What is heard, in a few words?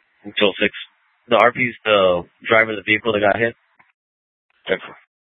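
Scottsdale Police South Dispatch; Regional Wrlss Cooperative (RWC) - Smlcst H- Scttsdle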